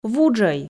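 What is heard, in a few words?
"вуджӧй", Komi, female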